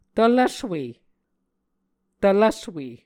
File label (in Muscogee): "tvlvswe", Muscogee